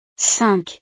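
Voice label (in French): "cinq", French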